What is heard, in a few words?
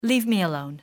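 leave me, english female; XBOX; civ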